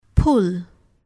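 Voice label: "pull", English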